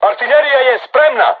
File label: voice message art charged, crew tanks serbian